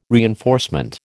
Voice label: "reinforcement", American